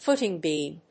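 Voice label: "footing beam", English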